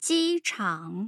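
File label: "jichang", Mandarin Chinese tone pair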